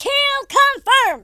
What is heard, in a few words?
revenge, Drill Sergeant; speech